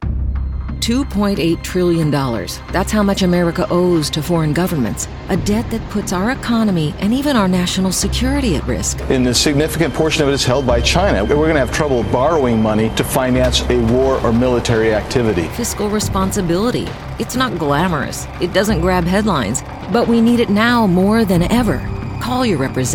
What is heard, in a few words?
women political